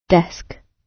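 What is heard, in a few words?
"desk", British English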